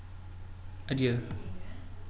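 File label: "Adidas", Brazilian, male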